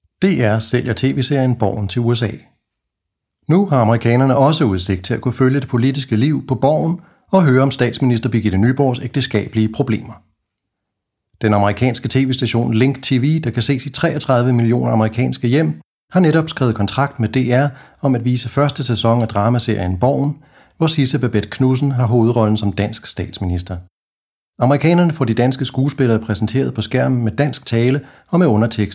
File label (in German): nachrichten, daenisch